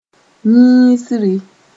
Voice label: "yĩisri", Mooré

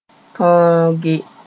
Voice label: "tõoge", Mooré

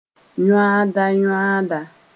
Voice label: "yoanda-yoanda", Mooré

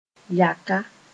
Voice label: "yaka", Moore

